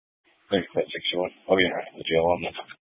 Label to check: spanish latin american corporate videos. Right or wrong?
Wrong